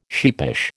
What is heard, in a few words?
"sheepish", American